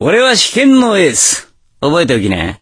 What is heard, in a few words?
voice, dubbing